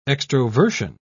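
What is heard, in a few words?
"extroversion", British English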